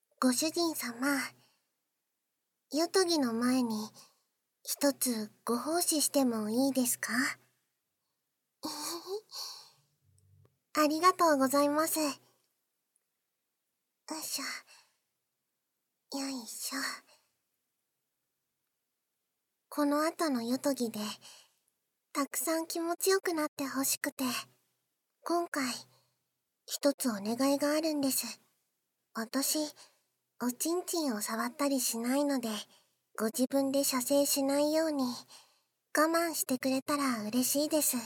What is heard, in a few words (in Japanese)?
バイノーラルマイク収録 効果音あり大きめ; 乳首攻め焦らし; 効果音大差分